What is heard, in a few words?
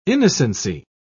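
"innocency", British English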